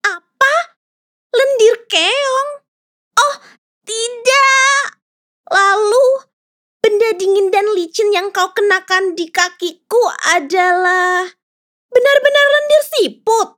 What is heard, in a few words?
CHARACTER INDONESIA